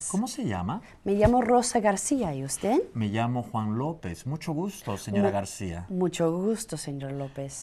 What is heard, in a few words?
greetings dialogue; novice